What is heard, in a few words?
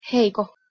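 "h1eiHko", Proto-Indo-European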